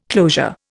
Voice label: "closure", Indian

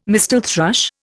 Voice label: "mistle thrush", Indian

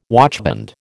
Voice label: "watchband", American

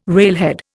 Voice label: "railhead", Indian

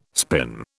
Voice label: "spin", British

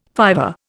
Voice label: "fiver", Indian

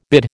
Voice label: "bid", American

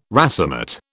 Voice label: "racemate", British

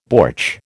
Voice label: "bortsch", American

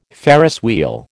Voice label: "Ferris wheel", American

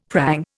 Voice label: "prang", Indian; Dictionary

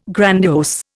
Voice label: "grandiose", Indian

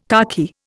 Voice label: "khaki", Indian

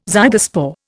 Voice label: "zygospore", Indian

